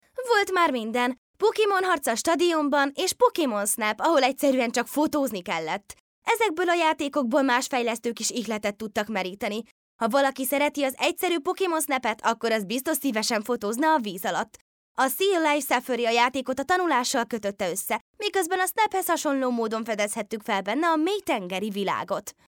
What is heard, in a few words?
hungarian f character; voice demos